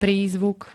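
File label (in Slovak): "prízvuk", slovenské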